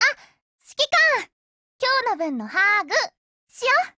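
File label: HELLO, Japanese; GF Alarm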